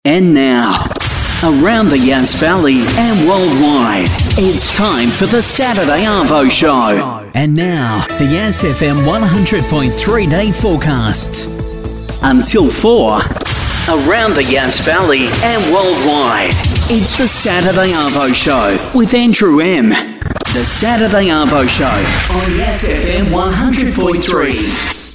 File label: imaging; saturday arvo show; freshen up